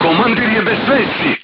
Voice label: voice message commander contused, crew tanks serbian